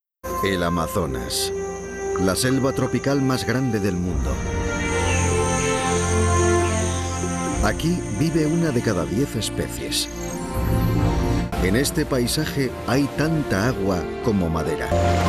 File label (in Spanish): DEMO DOCUMENTAL